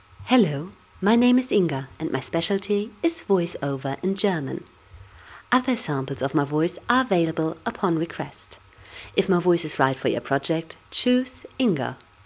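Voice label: German English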